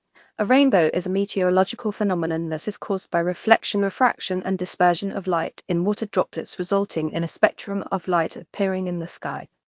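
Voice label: speaker, American English; piper-voices; southern english female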